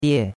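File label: "die4", Chinese; Cours-de-Chinois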